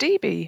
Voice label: "dibi" longer